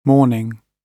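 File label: "mourning", British English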